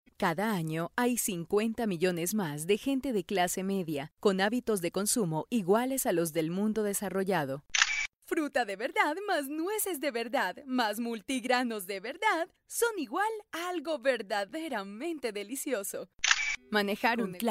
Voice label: Locutora colombiana

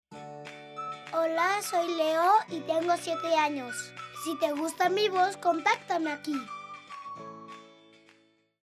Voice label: locutor, ninios

